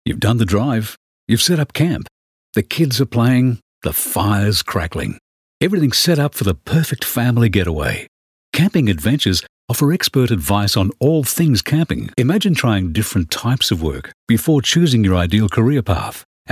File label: Soft Sell